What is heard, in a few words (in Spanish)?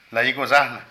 Molar, Zapoteco de Petapa; Cuerpo; Vocabulary